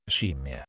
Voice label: "scimmia", Italian